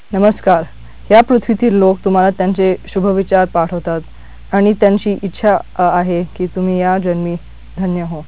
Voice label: marathi; Golden Record